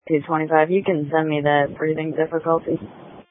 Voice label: Sheriff Dispatch